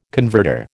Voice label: "converter", American